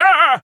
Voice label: Pain; Wizard